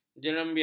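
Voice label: "jeremba", Malay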